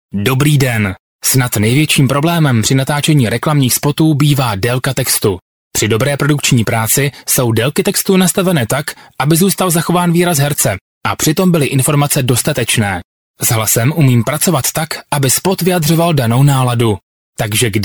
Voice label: promo voice; voiceover do videa